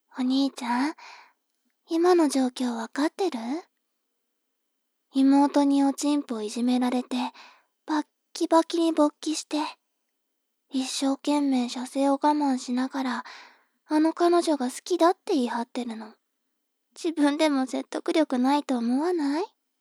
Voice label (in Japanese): 妹 台詞